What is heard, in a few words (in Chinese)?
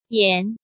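"Yan", Chinese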